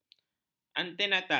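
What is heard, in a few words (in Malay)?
"antenatal", Malay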